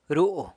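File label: "ru", mouth, San Lucas Quiaviní Zapotec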